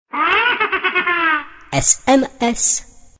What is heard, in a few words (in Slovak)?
sms hlásky cz